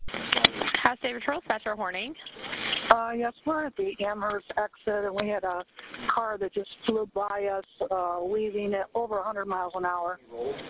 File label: 911 call